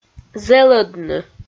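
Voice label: "зэлӧдны", Komi-Zyrian, female; audio dict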